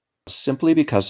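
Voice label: "simply because", English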